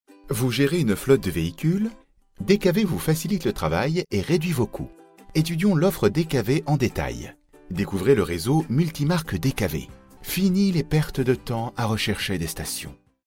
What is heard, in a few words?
male french voice over sales, france